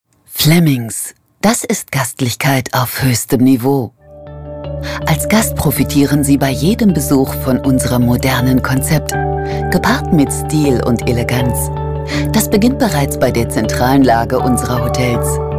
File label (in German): Imagefilm